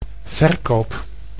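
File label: "verkoop", Dutch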